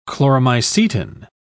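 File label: "chloromycetin", English, British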